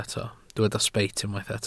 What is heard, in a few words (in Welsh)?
"sbeit", Welsh